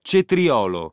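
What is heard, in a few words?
"cetriolo", Italian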